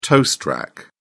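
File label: "toast rack", British English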